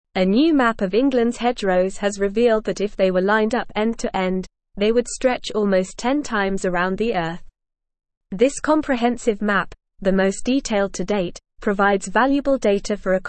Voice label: English NORMAL Reading; Englands Hedgerows A Vital Resource for Wildlife and Carbon

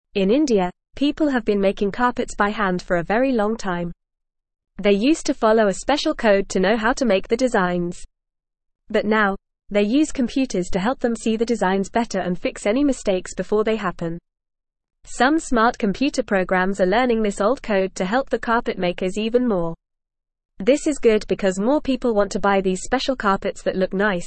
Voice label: English Beginner FAST Reading; Making Carpets in India Computers and Handwork

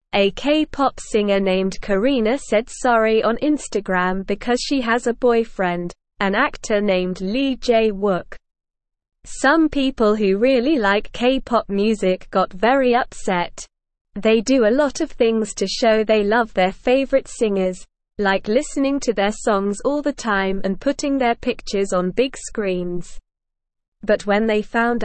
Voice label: English Beginner SLOW Reading; English Newsroom

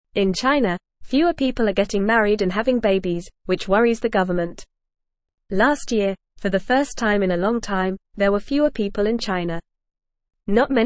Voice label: English Newsroom Lower Intermediate FAST Reading; Chinas Leaders Want More People to Get Married

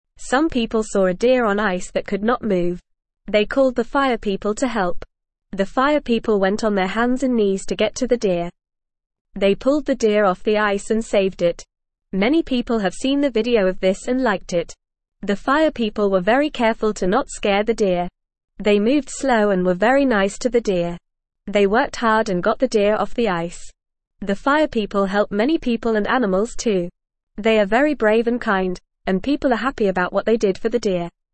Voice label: English Beginner FAST Reading; Fire People Rescue Deer Stuck on Ice; English Newsroom

